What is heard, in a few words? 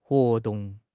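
"huo2 dong4", Chinese; 汉语口语新时代-初级下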